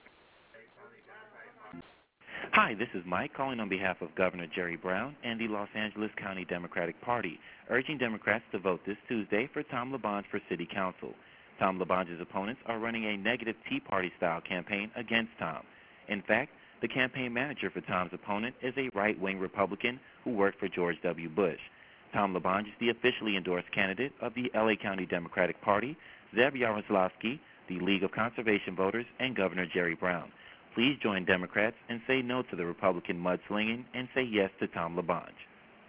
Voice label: Voice Mail Message; LaBonge